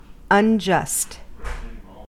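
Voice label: "unjust", American English; pronunciations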